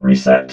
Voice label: "reset", robot